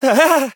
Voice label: hit fr; Cookie Run